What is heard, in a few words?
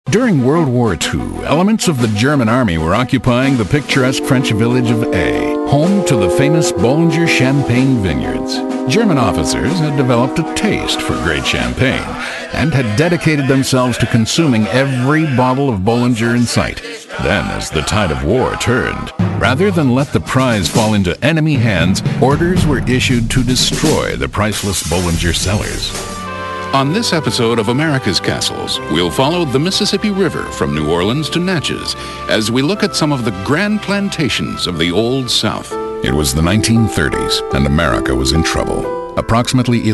Documentary Style